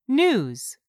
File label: "NEWS", English; 3000 words